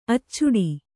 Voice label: "ಅಚ್ಚಿಡು", Kannada